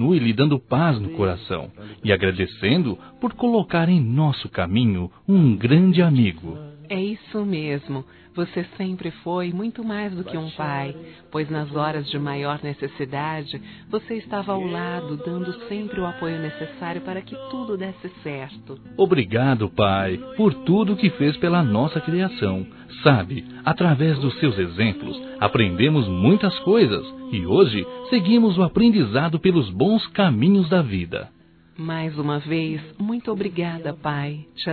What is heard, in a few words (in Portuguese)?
Aniversário Pai Duas Vozes; Aniversários; Aniv Familiar